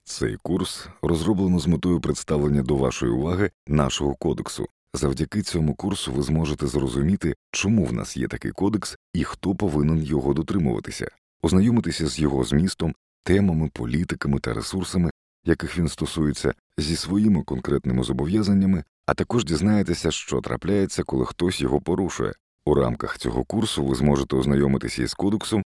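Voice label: Ukrainian narration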